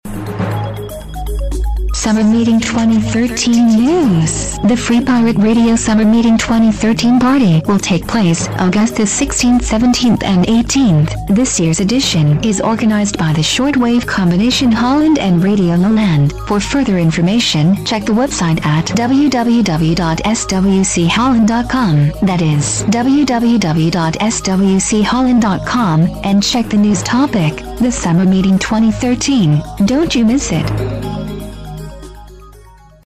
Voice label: PROMO english; SUMMERMEETING 2013